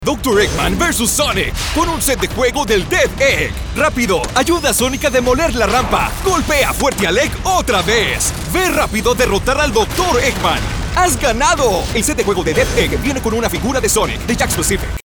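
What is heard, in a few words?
Latin American Spanish, Video Game; GoLocalise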